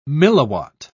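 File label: "milliwatt", British English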